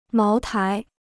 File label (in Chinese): "茅台", Chinese